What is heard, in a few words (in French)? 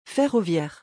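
"ferroviaire", French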